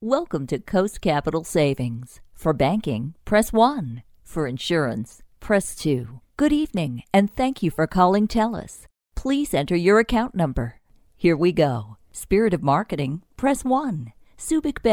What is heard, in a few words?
female american voice over upbeat; english american